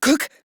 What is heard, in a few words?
Surprised Female; Warframe; Modular Corpus Female; Battle Barks; en